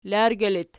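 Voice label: "largi" locative, Archi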